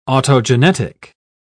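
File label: "autogenetic", British English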